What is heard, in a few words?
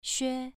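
"xue2", Chinese pronunciation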